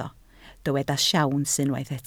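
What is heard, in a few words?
"siawns" Welsh, spoken word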